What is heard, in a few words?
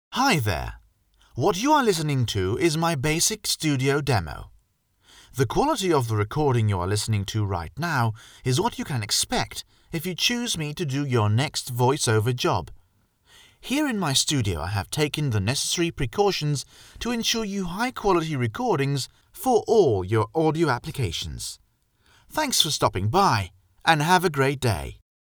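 Maltese-English, male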